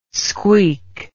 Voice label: "squeak", English